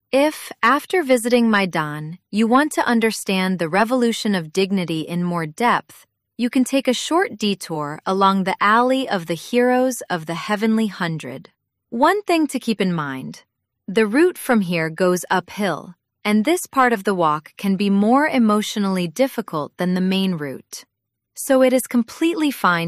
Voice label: speech eng; Understanding Ukraine's independence through Kyiv